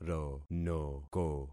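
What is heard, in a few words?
"ronoko", concatenated syllables; Affixing experiment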